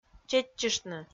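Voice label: "чеччыштны", Komi, female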